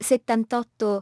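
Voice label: "seventy eight", English; iOS 4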